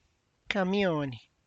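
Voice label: tlacochahuaya, Zapotec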